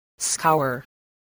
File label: "scour", english spelling